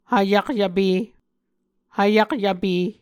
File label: "hvyakyvpe", Muscogee